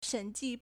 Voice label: "shen3ji4", Chinese